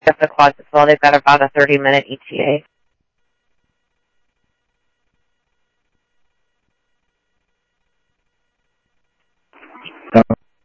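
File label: Lakes Sheriff Lake Patrol, scanner audio trunk; MCSO Lakes 1 SheriffLakePatro; ThompsonPeakScot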